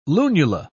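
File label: "lunula", British English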